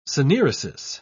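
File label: "synaeresis", English, British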